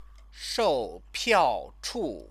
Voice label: "shoupiaochu", Chinese